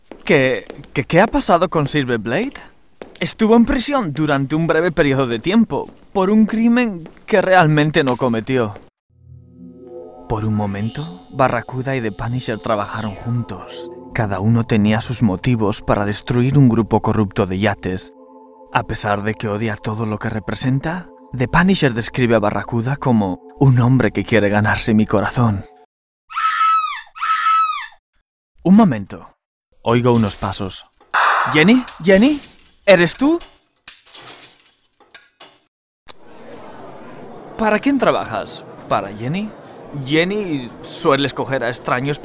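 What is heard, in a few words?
European Spanish, video game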